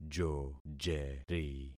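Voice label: "jojeri", concatenated syllables; Affixing experiment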